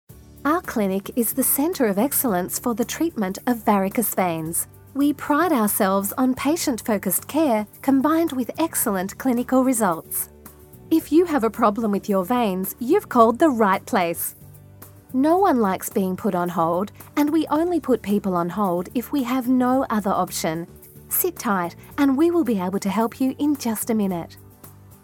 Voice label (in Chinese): 外籍英女 医疗中心宣传 轻快